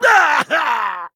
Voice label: Dwarf Emote Death

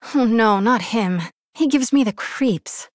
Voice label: Human Female

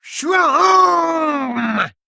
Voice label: Male Kobold Attack

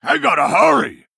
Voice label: Male Troll Time

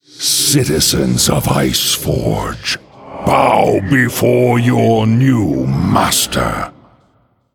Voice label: Male Undead Emote Picked

